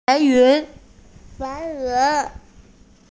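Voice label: "bai2yun2" Mandarin Chinese, female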